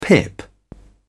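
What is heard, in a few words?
"pip", British English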